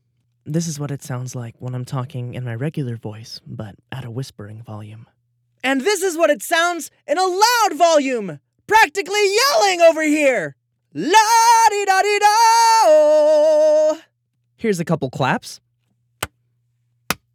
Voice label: Raw Studio Sample

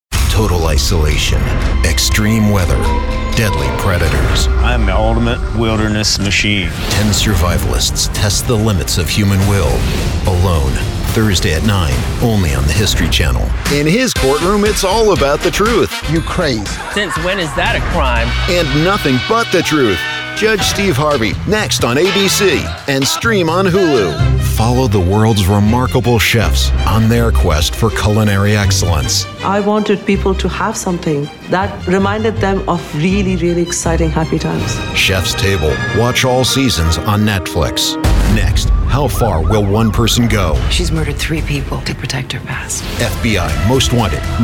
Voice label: Promos

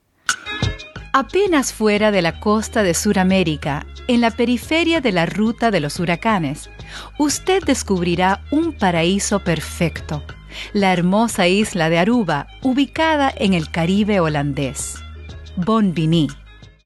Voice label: Spanish; Explore Aruba; Spanish - Audio Tour

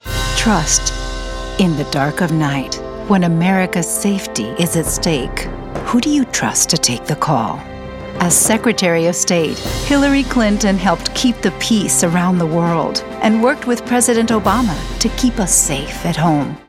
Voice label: English Political Ad; Hillary Clinton

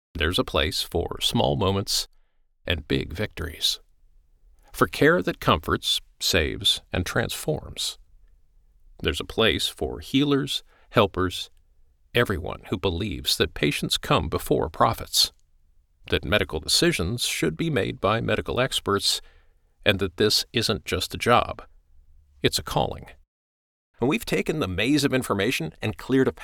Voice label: eLearning, Intimate Intro onboarding

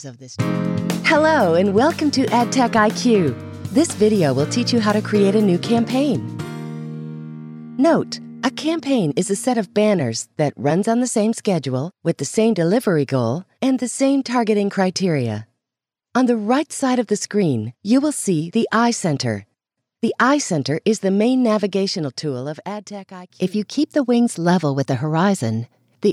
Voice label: Training and Elearning

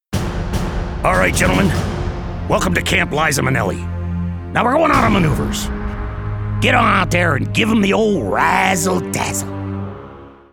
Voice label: Drill Sargeant

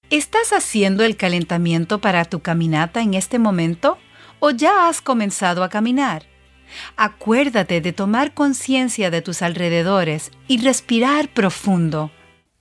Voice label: Spanish Exercise Podcast; Spanish - Medical Narration

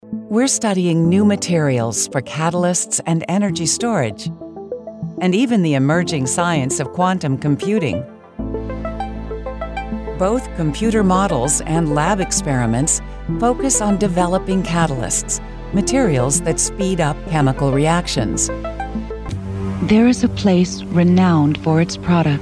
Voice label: Narration Documentary

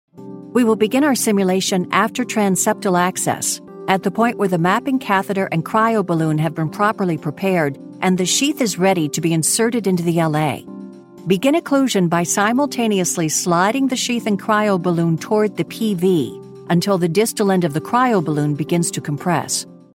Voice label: Authoritative Medical

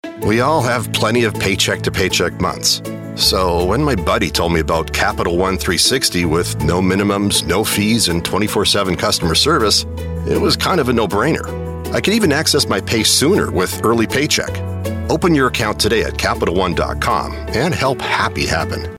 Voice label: Commercial; CAPITAL ONE

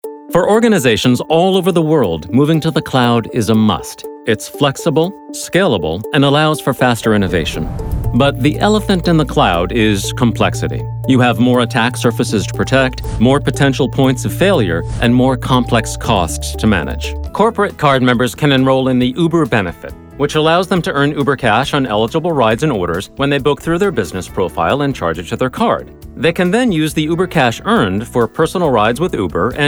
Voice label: vo narration

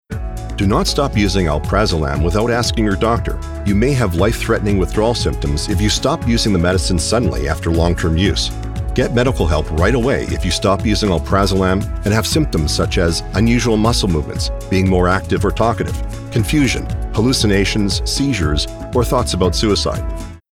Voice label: Medical Narration

